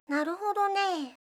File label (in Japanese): "naruhodonee", voice, reaction